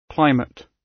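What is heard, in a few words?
"climate", British English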